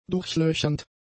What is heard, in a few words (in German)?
"durchlöchernd", German; adjectives